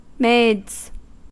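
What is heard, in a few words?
"maids", US English; pronunciations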